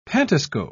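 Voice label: "pantoscope", British English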